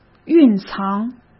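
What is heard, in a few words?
"蕴藏", Mandarin Chinese; hsk; chinese-flashcards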